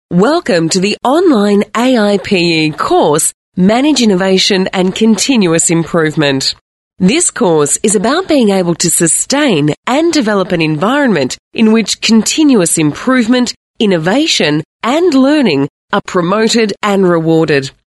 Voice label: female talent corporate